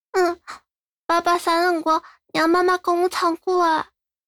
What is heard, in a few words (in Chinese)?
教唱歌